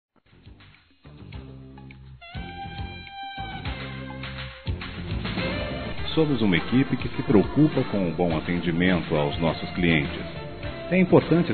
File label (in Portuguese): Telemensagens Empresarial masc; DUPLICAÇÃO DE CLIENTE; Telemensagens 2017